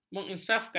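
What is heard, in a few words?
"menginsafkan", Malay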